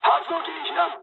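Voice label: Japanese damaged engine mood high; War Thunder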